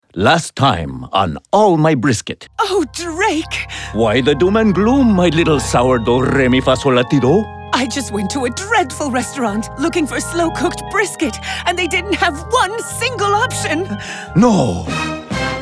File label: Soap Opera